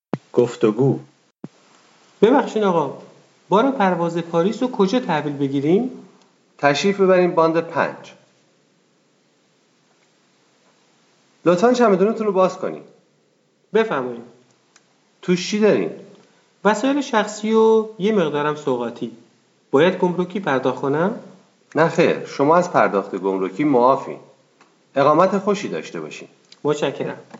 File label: Dialog, persian